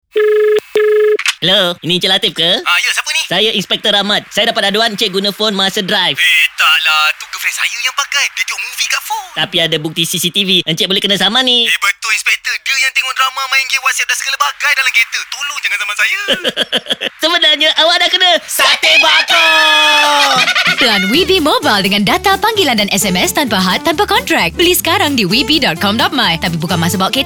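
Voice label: Malay; WEBE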